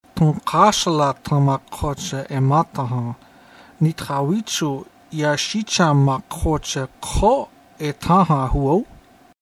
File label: dialog, lakota